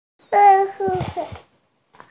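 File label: "ai3", Mandarin Chinese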